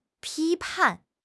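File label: "批判", Mandarin Chinese; HSK6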